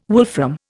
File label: "wolfram", Indian